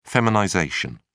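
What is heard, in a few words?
"feminization", British English, dictionary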